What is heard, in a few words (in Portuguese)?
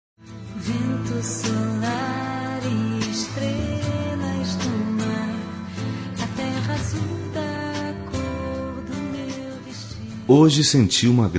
Amizade Com Saudades, M